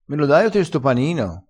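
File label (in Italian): "da ne denti", dialettale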